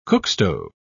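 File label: "cookstove", British English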